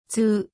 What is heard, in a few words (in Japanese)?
"つ", 日本語; 基礎日語五十音聽力